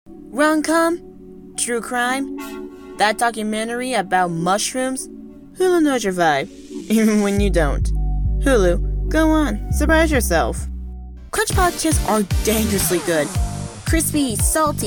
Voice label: Commercial VO

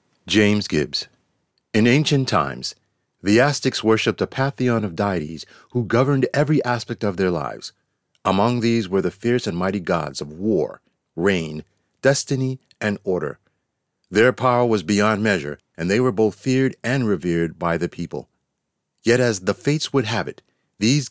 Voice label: Movie Trailer; The Four

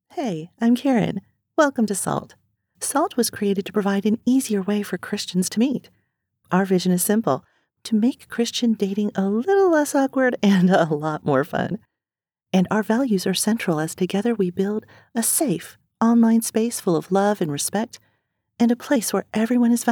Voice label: Dating app commercial

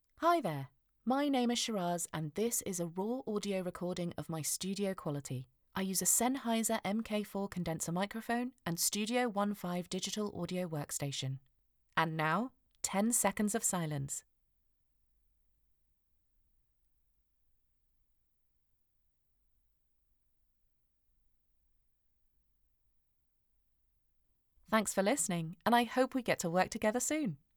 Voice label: Raw Studio Test, British Female

